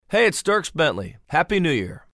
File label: liner happy new year